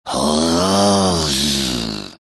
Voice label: happy; dota; slardar